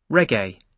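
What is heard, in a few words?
"reggae", British English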